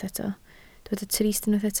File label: "trist", Welsh